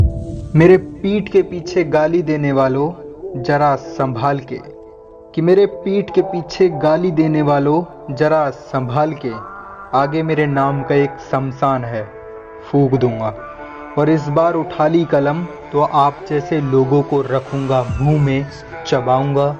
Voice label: Bad Boy Attitude Shayari